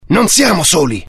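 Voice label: curious, Italian; mohaa; dialogue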